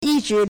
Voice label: "yi1 zhi2", Chinese

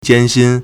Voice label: "jian1 xin1", Chinese

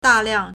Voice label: "da4 liang4", Chinese

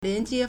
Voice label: "lian2jie1", Chinese

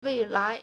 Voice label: "wei4lai2", Chinese

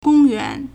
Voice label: "gong1 yuan2", Chinese

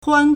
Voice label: "huan1", Chinese

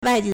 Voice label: "wai4di4", Chinese

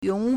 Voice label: "yong3", Chinese

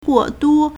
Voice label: "guo4duo1", Chinese

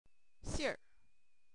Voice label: "xing", Chinese

